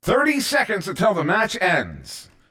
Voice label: 30 Secs Left, announcer